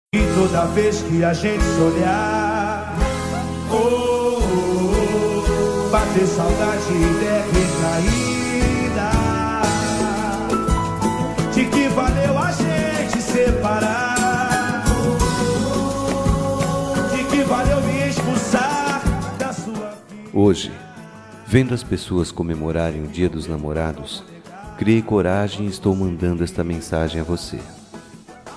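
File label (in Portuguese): despedida masculino, telemensagem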